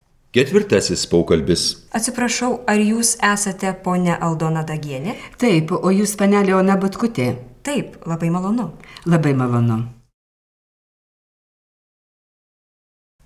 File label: Dialog; FF:BA103L Litevština. Jazykový kurs B1: praktikum